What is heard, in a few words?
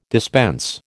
"dispense", American